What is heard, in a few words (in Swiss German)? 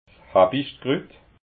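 "habichtkrut", Alsatian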